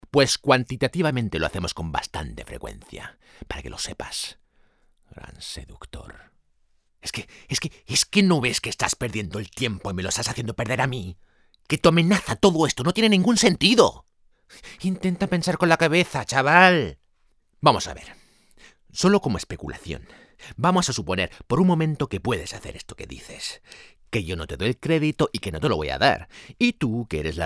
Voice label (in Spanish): Español; ElCredito; LOFT VOICES